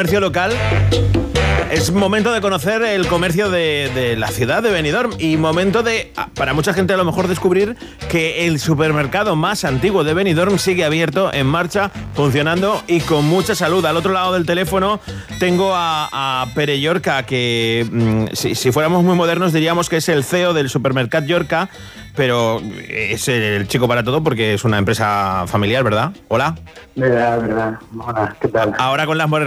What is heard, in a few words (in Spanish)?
entrevista radio; entrevista suplermercatllorca radio sirena COPE